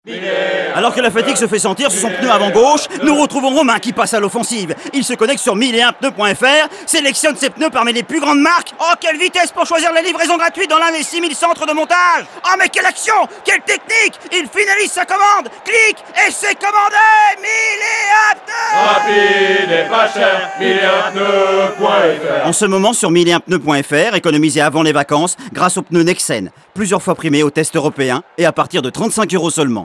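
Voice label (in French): spot, spots radio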